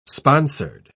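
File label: "sponsored", British English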